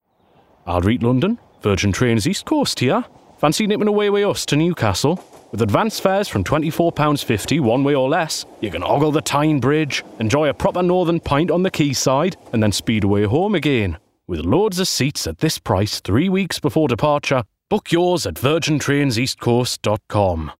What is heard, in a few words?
COMMERCIAL; Virgin Trains